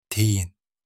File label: teen in arabic